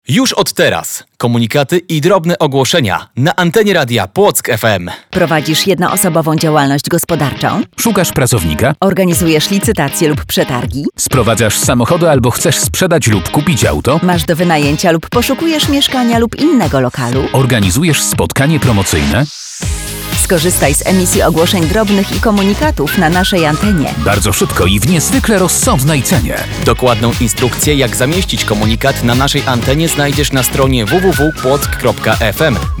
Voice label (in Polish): promos radio